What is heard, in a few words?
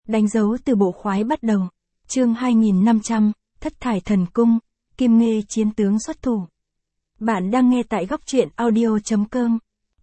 vi-VN Standard; danh dau tu bo khoai bat dau